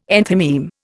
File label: "enthymeme", Indian